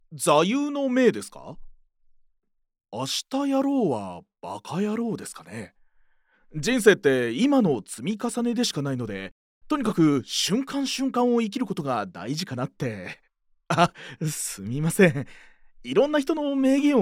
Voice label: male, 声優, 青年の日常会話; narrator men

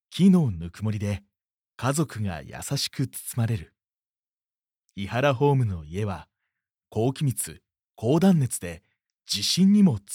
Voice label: male, ナレーション, 温かい、落ち着いた; narrator men

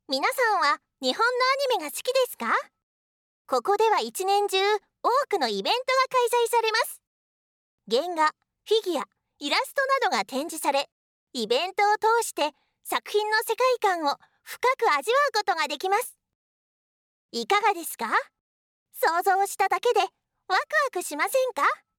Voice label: かわいい、明るい(日本語), ナレーション; 韓国ソウル出身。日本の専門学校、声優養成所を卒業。

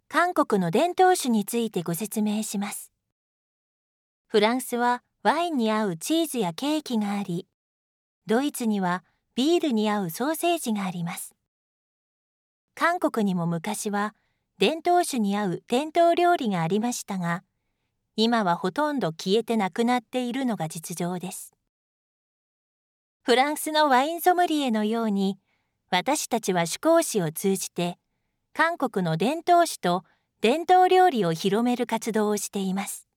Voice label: ゆったりとした(日本語), ナレーション; 韓国ソウル出身。日本の専門学校、声優養成所を卒業。

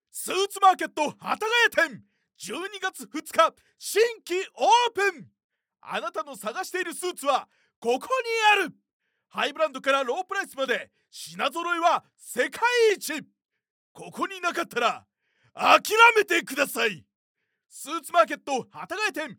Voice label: male, ナレーション, 勢いのよい、凄みのある; narrator men

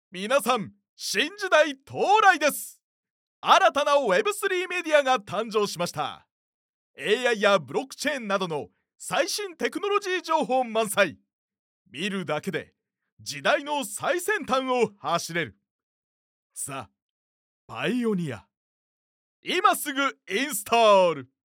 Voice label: male, ナレーション, ハキハキ、堂々とした; narrator men

